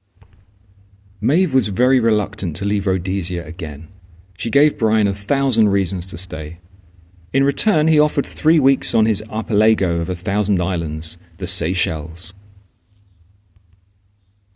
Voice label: voiceover